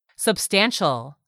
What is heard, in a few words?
"substantial", English; 3000 words